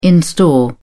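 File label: "in store", British English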